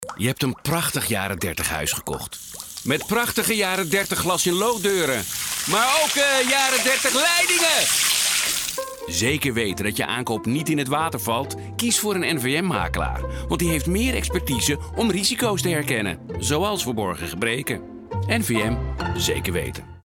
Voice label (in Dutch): radiocommecial; verborgen gebreken